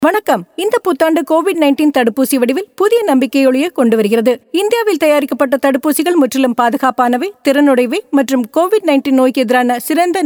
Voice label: Tamil Caller Tune